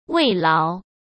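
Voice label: "慰劳", Mandarin Chinese; hsk; chinese flashcards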